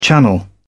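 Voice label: "channel", British English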